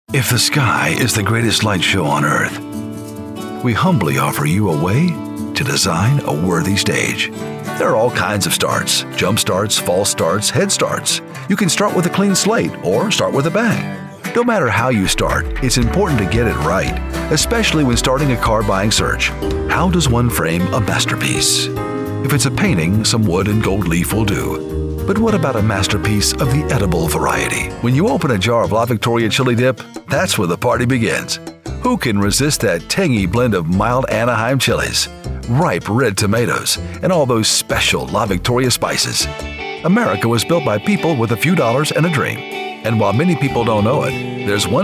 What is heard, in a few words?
commercial